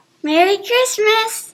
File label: audio greeting